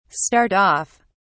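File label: "start-off", British English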